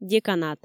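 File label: "деканат", Komi, female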